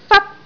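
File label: "fat1", Cantonese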